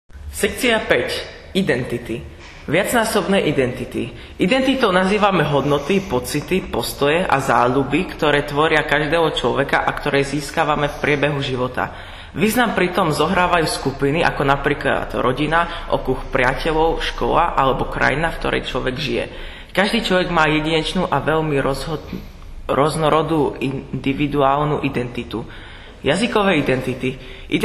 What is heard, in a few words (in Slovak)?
slovensky; Station 5 identitaeten